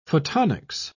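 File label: "photonics", British English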